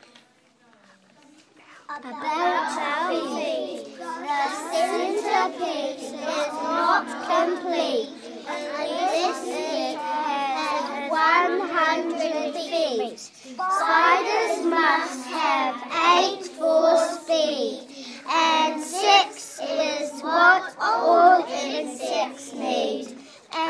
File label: poetry